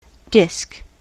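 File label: "disk", US English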